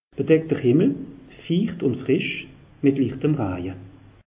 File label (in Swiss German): "bedeckter himmel", alsace; meteo